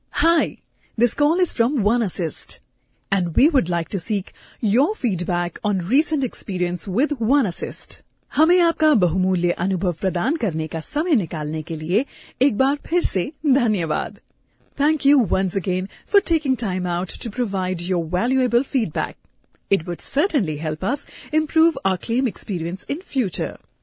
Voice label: IVR english

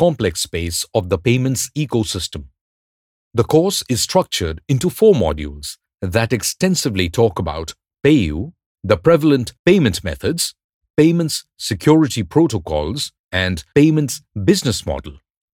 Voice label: E-learn, fintech, English

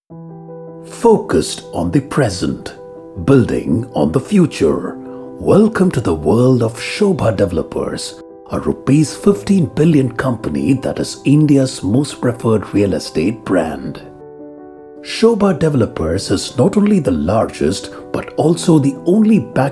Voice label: Product Promo English; Sobha Developers

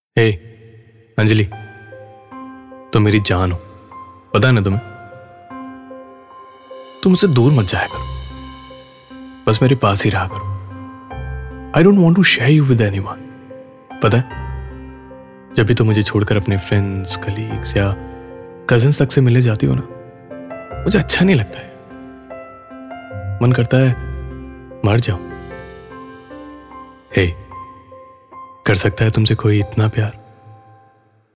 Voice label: poetic hindi

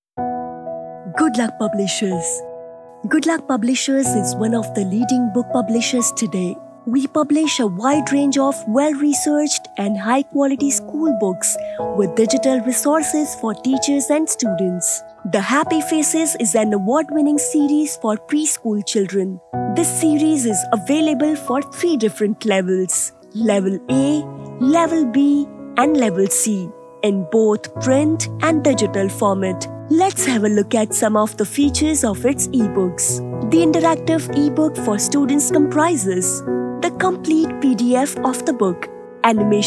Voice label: Eng; GoodLuckPublishers

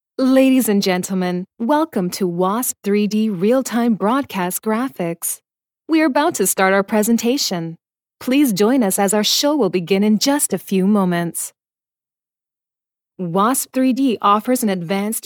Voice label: explainer

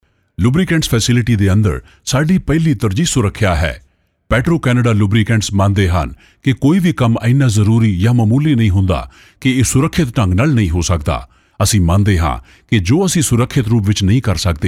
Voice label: narration punjabi

